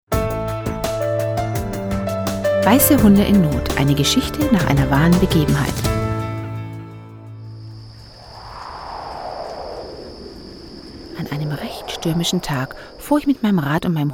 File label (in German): Hörbuch